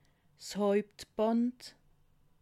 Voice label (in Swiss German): "hauptband", alsace